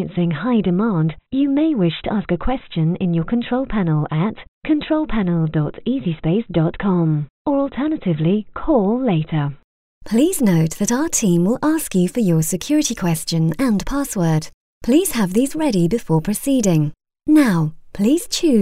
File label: ON HOLD